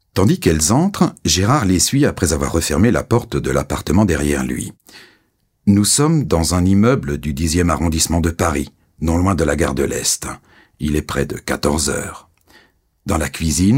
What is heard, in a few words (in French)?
Livre audio; hoerbuch; CA BOUGE TAXI